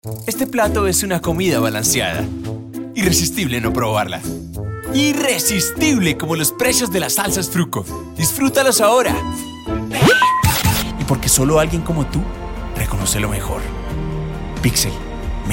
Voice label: Spanish Colombian commercial